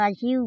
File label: "aSyu" who, andamanese